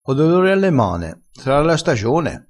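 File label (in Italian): "infrui", crespinese dialettale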